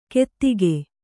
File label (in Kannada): "ಕೆತ್ತಿಕೆ", Kannada